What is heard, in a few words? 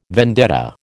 "vendetta", American